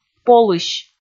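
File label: "полысь", Komi, female; kpv audio dict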